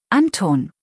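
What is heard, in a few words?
"Anton", German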